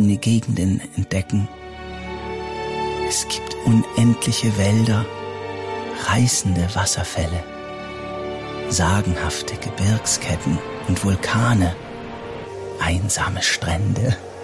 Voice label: Mood Erde Reboot Deutsch, Tale (Erzählung), Narrative; Mood Film Unser Planet

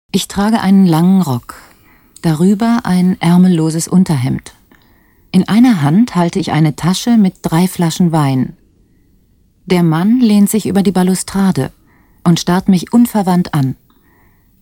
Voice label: Radio Feature; SDR

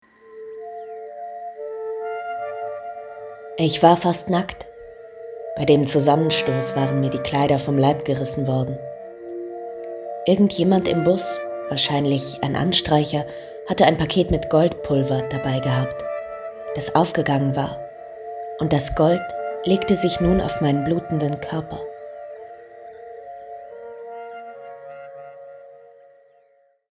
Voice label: Hoerspiel; Frida Khalo

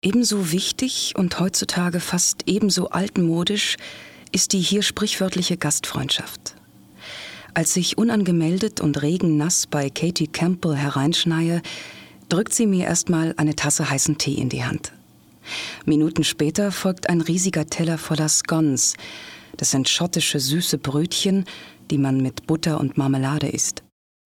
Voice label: kommentar

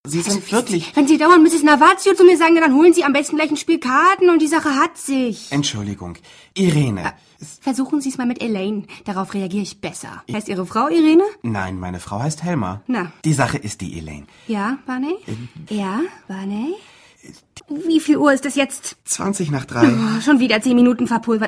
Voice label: Hoerspiel Dialog; LOFT VOICES

